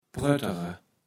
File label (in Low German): "pröttere", Platt